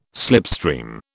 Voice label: "slipstream", British

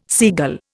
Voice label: "seagull", Indian

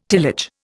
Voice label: "tillage", Indian

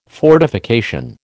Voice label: "fortification", American

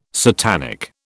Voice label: "satanic", British

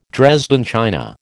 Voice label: "Dresden china", American

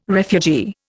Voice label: "refugee", Indian

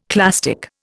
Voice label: "clastic", Indian

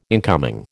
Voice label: "incoming", American

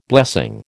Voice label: "blessing", American

